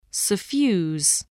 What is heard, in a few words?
"suffuse", spelling